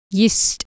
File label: "gist", Anglo Saxon